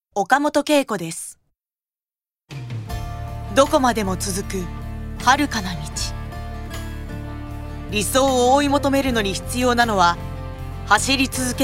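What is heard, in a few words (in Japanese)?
narration ハード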